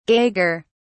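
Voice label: "gager", British English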